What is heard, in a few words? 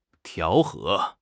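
"调和", Mandarin Chinese; HSK6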